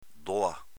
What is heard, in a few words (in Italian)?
"doa", Veneto; dóa s.f. doga